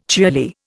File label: "cheerly", Indian